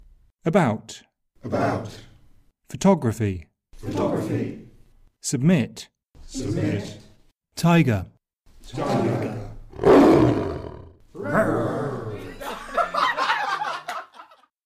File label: schwa sound samples